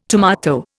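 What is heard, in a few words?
"tomb", Indian; Dictionary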